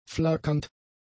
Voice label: "flackernd", German; adjectives